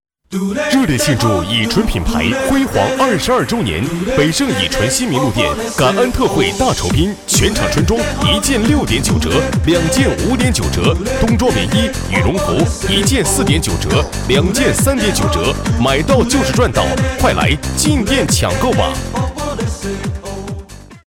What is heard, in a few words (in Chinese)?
广告 促销 打折促销 激情, 男